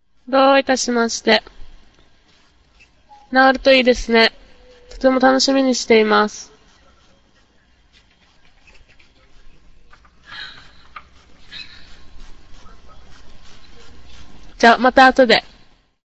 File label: Japanese Return Telephone Call